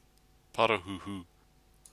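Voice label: "parahuhu", Māori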